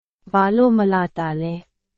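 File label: Burmese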